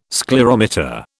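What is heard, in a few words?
"sclerometer", British English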